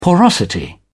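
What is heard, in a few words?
"porosity", British English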